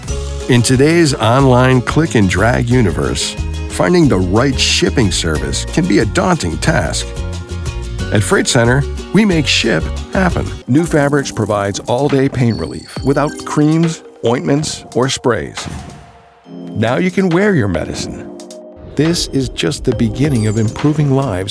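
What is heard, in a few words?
english american explainer videos, male; american voice actors; Gen X Männerstimme; der lässige, gesprächige Ton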